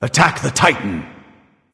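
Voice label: vox attack, male; smite; ullr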